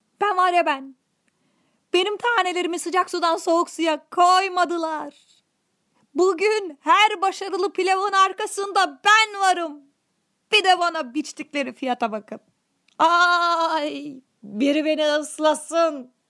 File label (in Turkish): animasyon